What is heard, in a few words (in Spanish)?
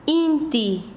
"sol", Quechua Chanka